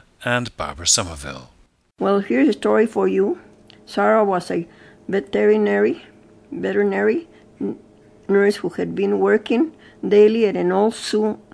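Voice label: new mexico, International Dialects of English Archive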